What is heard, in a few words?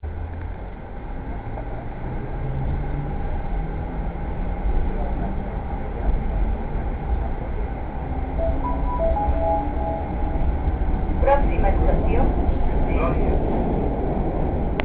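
After closing doors with a several-notes sound, a recorded announcement says: "Próxima estació [name], enllaç amb línia [number]". This is Catalan and means "Next station [name], transfer with line [number]"; Barcelona; metro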